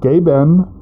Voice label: muffled